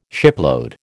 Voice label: "shipload", American